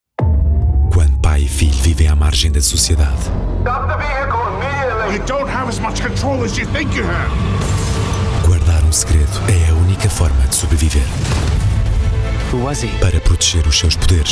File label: Trailer